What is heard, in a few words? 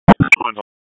PS TAC, p25; wake p25